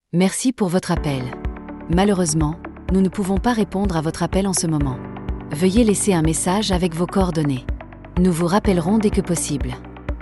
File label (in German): standard ansage